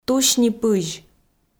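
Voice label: "тусь ни пызь", Komi, female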